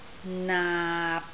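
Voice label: "naap6", Cantonese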